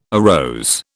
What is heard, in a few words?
"arose", British